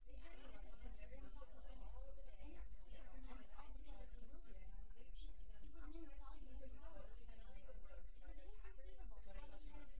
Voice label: female synth conv 5cm sim, female; SASSEC07